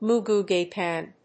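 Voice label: "moo goo gai pan", English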